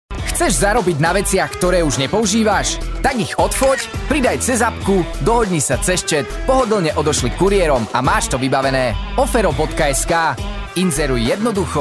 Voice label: Slovakia male; lektoring